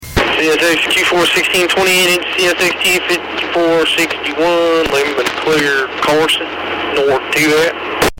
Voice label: limited clear; Carson